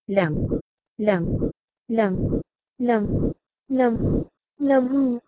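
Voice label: Proto-Indo-European lengwh to Sanskrit laghu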